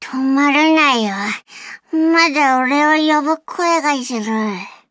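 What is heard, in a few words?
victory, Japanese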